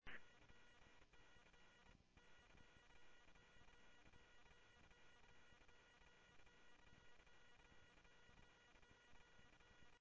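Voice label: Phoenix police department, scanner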